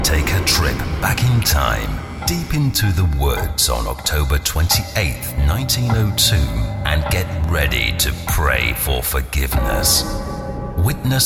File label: horror movie plus radio ads